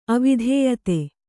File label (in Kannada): "ಅವಿಧೇಯತೆ", Kannada